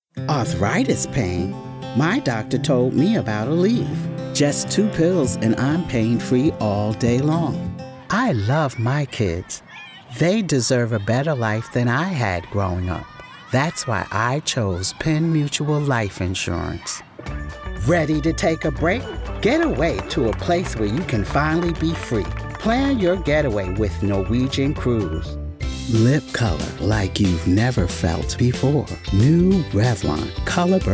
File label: Commercial